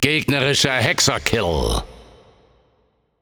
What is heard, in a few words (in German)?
Announcer German Spree Classic Enemy 6 Kills